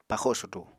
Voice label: "paxo sudu", Apatani